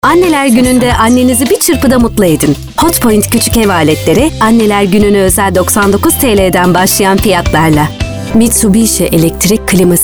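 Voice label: Turkish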